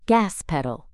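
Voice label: "gas pedal", English; transportation vocabulary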